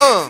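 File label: "uh", male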